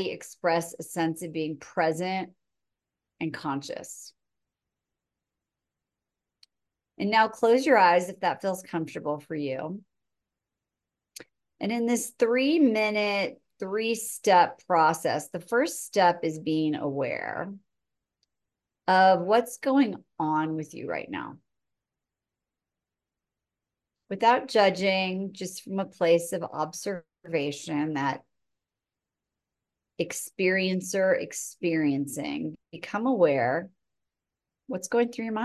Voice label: Breathing Space Meditation